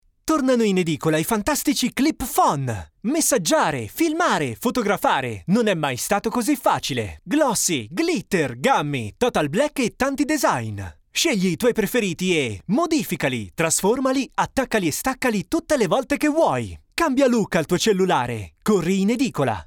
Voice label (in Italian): commerciale